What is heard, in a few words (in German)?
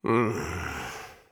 Soldier auto dejected tie; Brummen